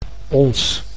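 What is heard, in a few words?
"ons", Dutch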